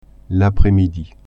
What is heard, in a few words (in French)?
"après-midi" French